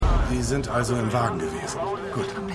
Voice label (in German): Polizist, Stimmproben